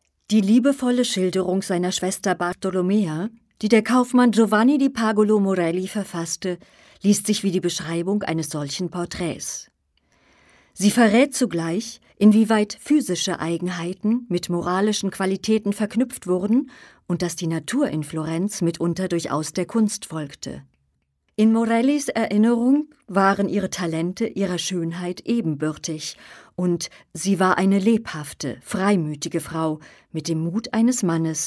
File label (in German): dokumentation